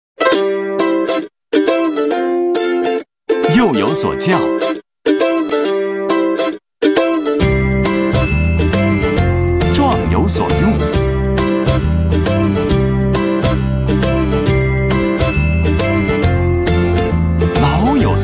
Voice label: 男 品牌广告 保险 轻松风格, 国语男声配音; 新华保险

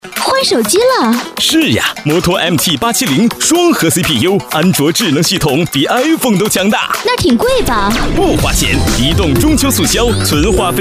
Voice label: 男 广告 移动促销, 国语男声配音 浑厚大气; 移动促销25秒成品

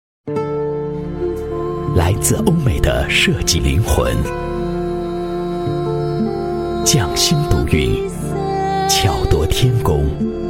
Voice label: 男 品牌广告 卫浴, 国语男声配音

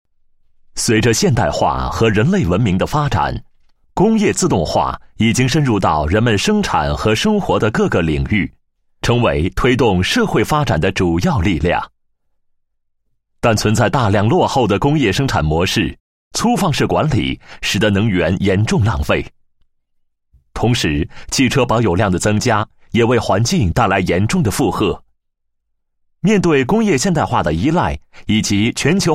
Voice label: 男 企业 公司宣传; 蓝海华腾公司宣传

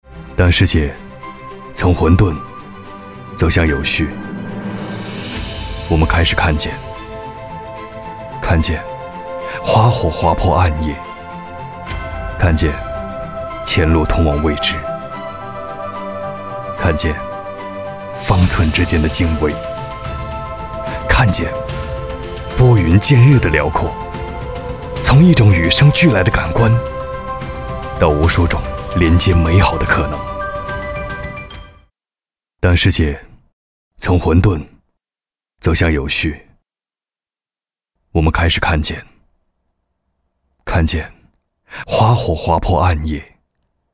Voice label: 男 科技感; 世界

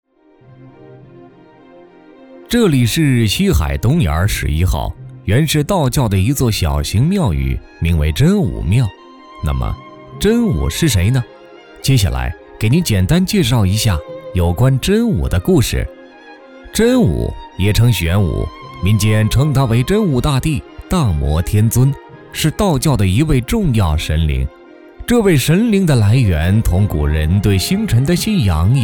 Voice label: 男100-真武庙介绍（北京方言介绍）, 国语男声配音

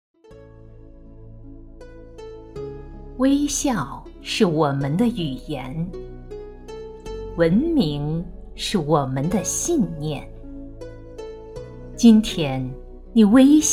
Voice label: 女, 专题, 解说 慢速抒情, 国语女声, 成熟知性; 小学文明之星

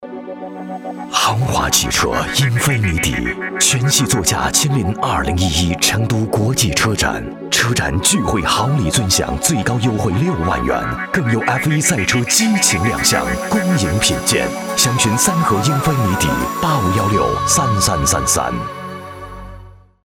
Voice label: 男 汽车广告, 国语男声配音

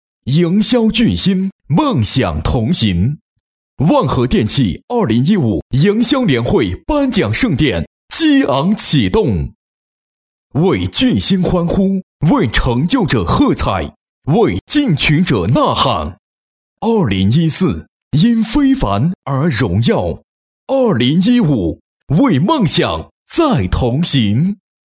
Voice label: 男 颁奖片头, 国语男声配音, 浑厚磁性

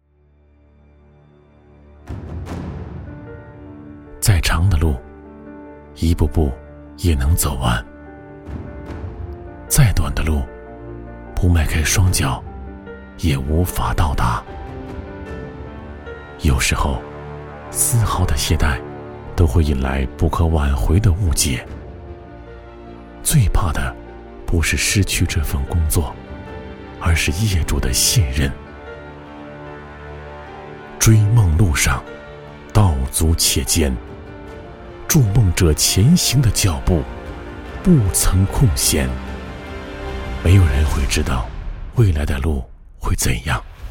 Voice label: 男, 片头片花, 激情动人

